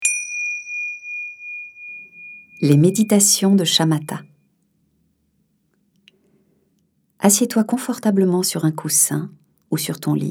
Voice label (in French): Meditation